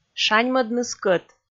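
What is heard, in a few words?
"шаньмӧдны скӧт", Komi, female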